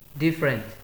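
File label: "different", Nigerian Pidgin, male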